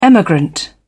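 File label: "emigrant", British English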